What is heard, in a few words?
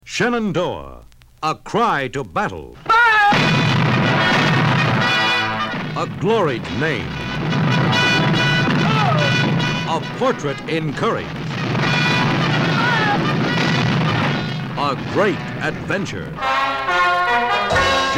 Radio Spot; Shenandoah